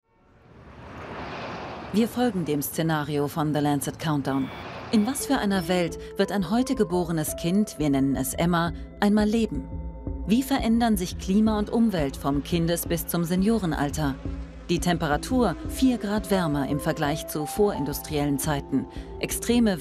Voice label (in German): doku; mdr hitze